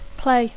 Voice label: "play", English; jugar a; tocar